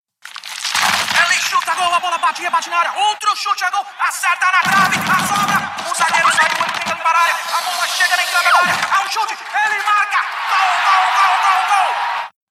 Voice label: portuguese brazilian animation